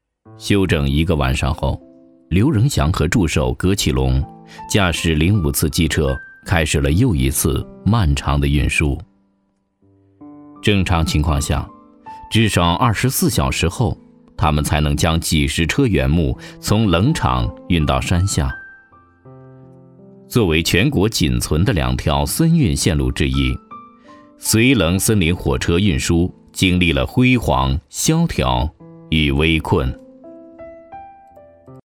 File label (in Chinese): 男 纪录片; 人物; 火车司机